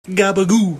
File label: Gabbagoo Italian Ringtone